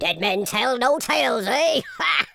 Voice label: fatality, speech, Pirate